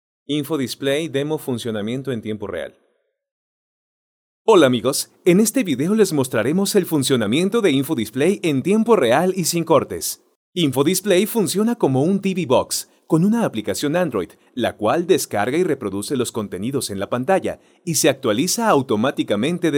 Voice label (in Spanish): LOC ELEARNING Y TUTORIALES; INFO DISPLAY